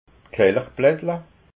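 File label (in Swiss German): "kelchblattla", Alsatian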